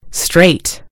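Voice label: "straight", British English; gcompris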